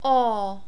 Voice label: o long, Czech

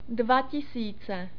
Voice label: "dva tisíc", Czech; numbers

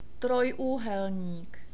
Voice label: "trojuh", Czech

